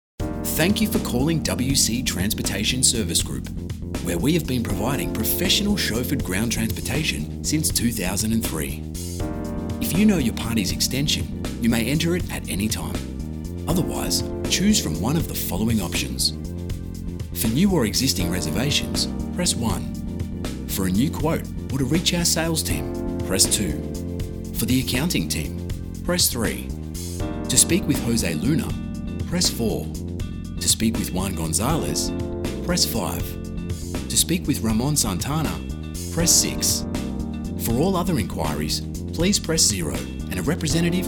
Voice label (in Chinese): 外籍英男 电话应答系统